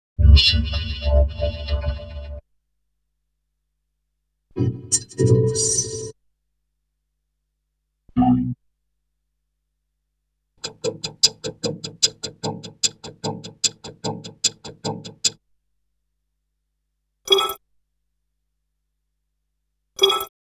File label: Vocoder Speech; Bizarre Planet